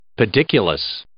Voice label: "pediculous", English